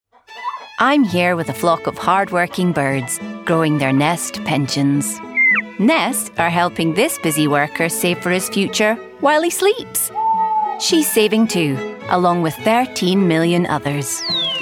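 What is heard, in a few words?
COMMERCIAL, Female; NEST COMMERCIAL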